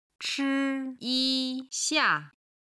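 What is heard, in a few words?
"chi yixia", Chinese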